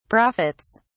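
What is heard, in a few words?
"profits", British English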